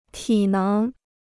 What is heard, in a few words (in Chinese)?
"体能", Chinese